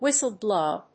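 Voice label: "whistle-blow", English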